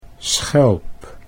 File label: "schelp", Dutch